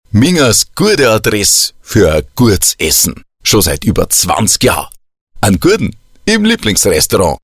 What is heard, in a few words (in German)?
bayerisch